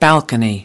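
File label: "balcony", English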